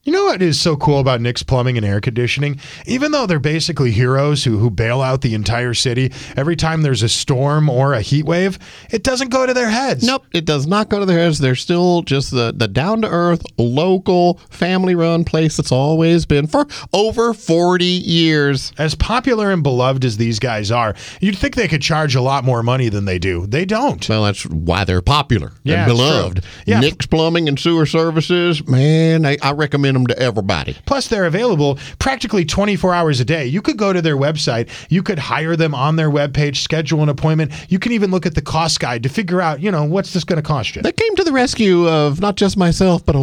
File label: RADIO AD; nicks plumbing radio ads